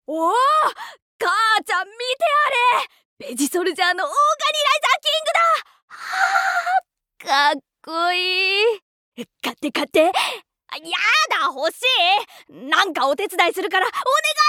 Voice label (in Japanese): 少年